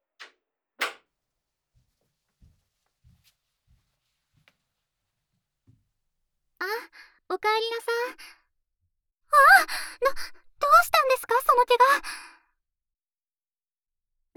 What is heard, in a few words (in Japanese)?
回復呪文を 耳元で 囁いて, エコーなし; 癒し力倍増！？